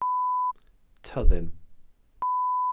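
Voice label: "tyddyn", Welsh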